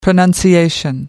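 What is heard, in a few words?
"pronunciation", British English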